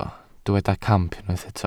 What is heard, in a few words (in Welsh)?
"camp", Welsh